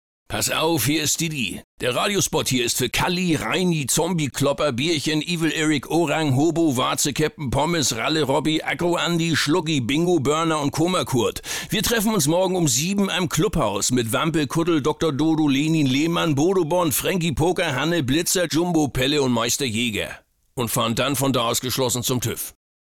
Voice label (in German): Radio geht ins Ohr Funk